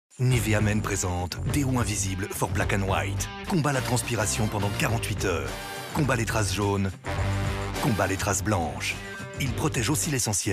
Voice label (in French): masculin viril; niveaMenDeo